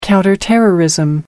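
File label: "counterterrorism", British English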